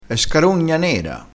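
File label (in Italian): "scarogna", dialettale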